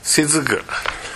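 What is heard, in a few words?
"sezuku", Minamiyamagata dialect Japanese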